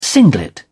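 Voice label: "singlet", British English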